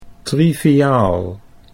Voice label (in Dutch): "triviaal", Dutch